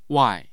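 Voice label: "wai4", Mandarin Chinese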